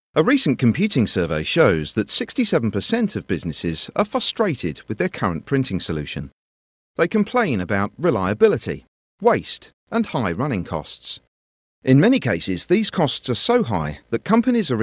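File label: British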